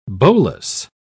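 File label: "bolas", British English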